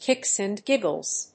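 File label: "kicks and giggles", English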